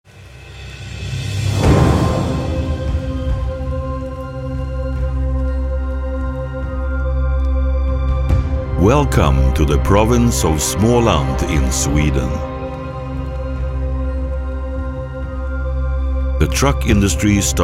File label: Swenglish Narration